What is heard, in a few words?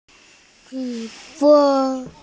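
"qu1fen1", Mandarin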